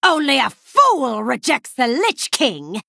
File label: Female Human Play